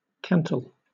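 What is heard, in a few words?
"cantle" English, British accent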